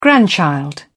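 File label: "grandchild", English, British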